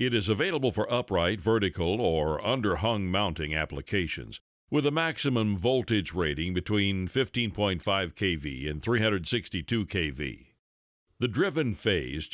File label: explainer video